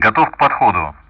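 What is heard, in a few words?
Speech Sound Russian; DCS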